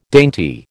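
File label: "dainty", American English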